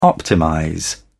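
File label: "optimize", British English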